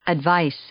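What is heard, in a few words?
"advice", English; noun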